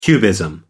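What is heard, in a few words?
"cubism", British English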